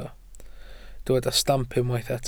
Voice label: "stamp", welsh

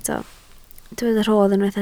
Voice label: "rhodd", Welsh

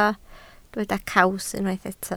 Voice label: "caws", Welsh

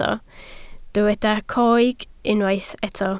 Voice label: "coeg", Welsh

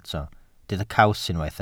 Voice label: "caws", welsh

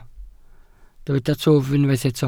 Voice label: "twf", Welsh

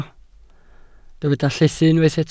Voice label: "llethu", Welsh

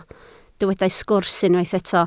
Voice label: "sgwrs", Welsh

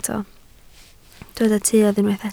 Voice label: "tuedd", Welsh

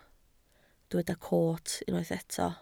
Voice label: "coot", Welsh

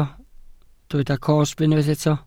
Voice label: "cosb", welsh

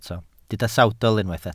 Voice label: "sawdl", Welsh

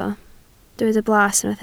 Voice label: "blas", Welsh

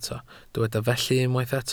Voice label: "felly", Welsh

